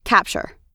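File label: "capture", English; oxford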